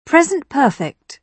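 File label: "present perfect", British English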